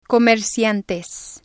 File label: "comerciantes", spanish